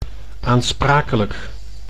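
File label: "aanspakelijk", Dutch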